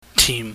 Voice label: "tim", pingyam